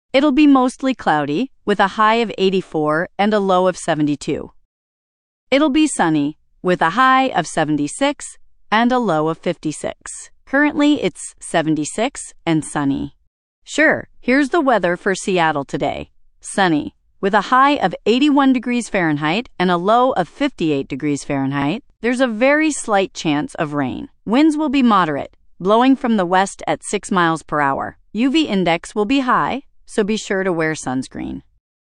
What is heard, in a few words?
new voice Female